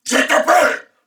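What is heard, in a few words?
Throw Grenade Male, en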